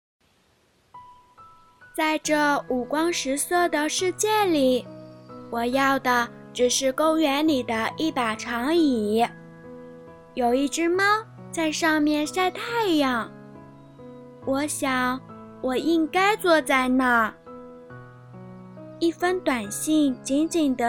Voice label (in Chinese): 萝莉